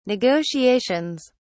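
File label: "negotiations", British English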